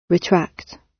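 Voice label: "retract" British; Flora and fauna, agriculture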